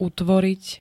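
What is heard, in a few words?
"utvoriť", Slovak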